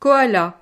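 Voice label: "koala", French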